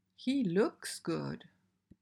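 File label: "He looks good", English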